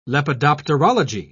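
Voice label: "lepidopterology", British English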